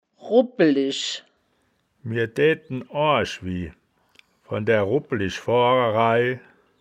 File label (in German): "rubpelisch", wittlich